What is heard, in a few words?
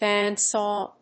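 "band saw", English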